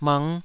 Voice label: "mng5", taiwanese syllables